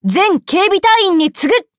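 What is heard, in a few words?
loading, Japanese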